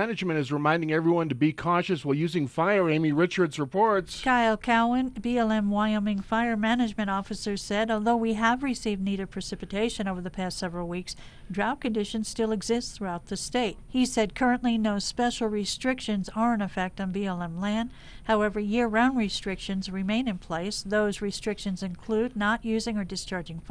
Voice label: radio news; wyoming